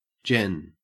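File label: "gen", Australian English; pronunciations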